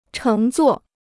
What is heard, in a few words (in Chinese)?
"乘坐", Chinese